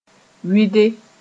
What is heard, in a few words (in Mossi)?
"wɩde", moore